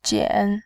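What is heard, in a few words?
"jian", Mandarin, third tone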